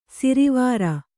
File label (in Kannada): "ಶಾರ್ವರ", Kannada